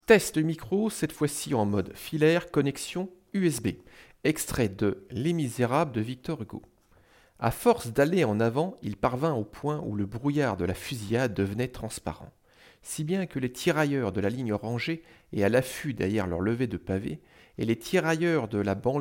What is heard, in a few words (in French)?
Test Micro USB